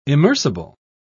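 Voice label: "immersible", British English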